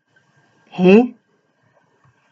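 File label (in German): "haa", German interjection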